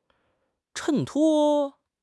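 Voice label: "衬托", Mandarin Chinese; HSK; HSK6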